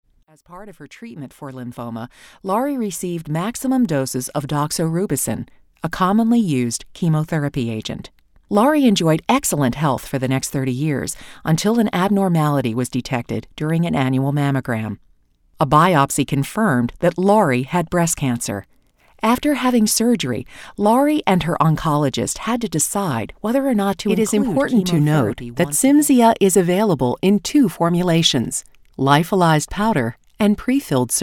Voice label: Medical Narration